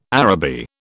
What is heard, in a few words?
"Araby", British